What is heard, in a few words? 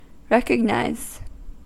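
"recognise", American; pronunciations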